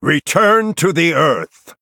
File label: Male Night Elf Attack